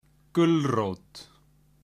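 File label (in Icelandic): "gulrot", Icelandic; matarhefti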